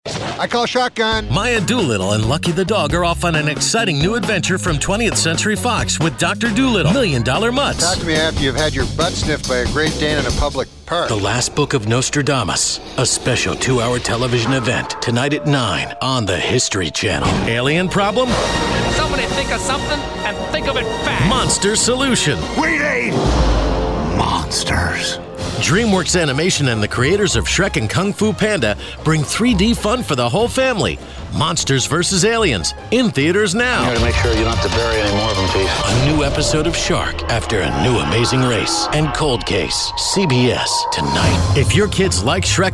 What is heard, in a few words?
Men Promo Trailers